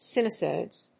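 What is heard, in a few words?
"Sinusoids", English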